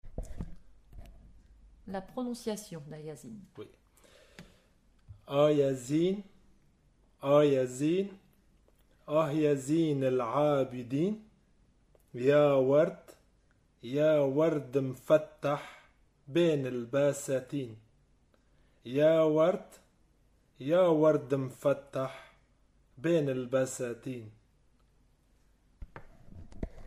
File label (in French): Ah ya zain prononciation